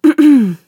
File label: back off, characters voice human woman threat